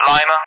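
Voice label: "Lima", English; Alphabet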